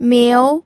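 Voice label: "miu", second tone, pinyin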